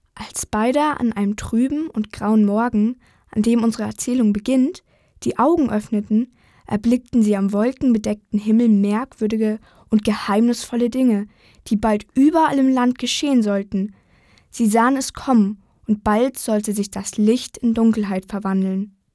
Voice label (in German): maedchen; Licht in Dunkelheit